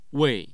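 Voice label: "wei4", Mandarin Chinese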